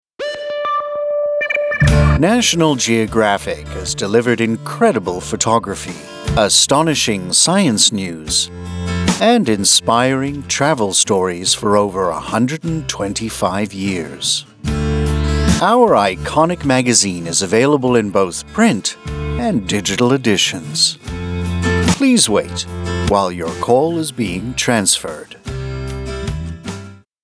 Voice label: American Male Voice, On Hold Messaging, Accent: General North American, Tone / Style: Friendly, Professional; Tone / Style: Friendly, Professional, Informat